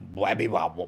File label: crazy mumble; mvm forever